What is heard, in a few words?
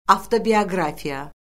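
"avtobioqrafiya", Azerbaijani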